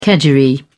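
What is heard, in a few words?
"kedgeree", British English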